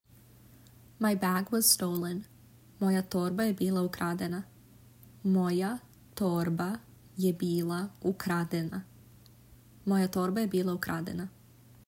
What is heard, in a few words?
"My bag was stolen", Serbian